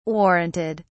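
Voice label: "warranted", British English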